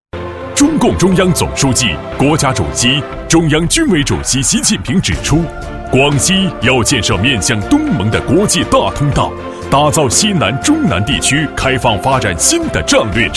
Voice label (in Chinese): 宣传片 城市 激情, 男国; 防城港是个好地方